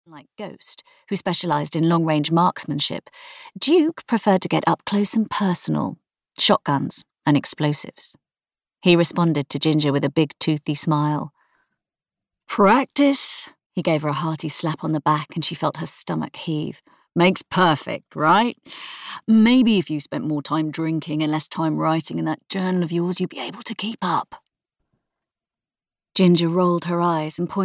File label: audiobook; War for New Terra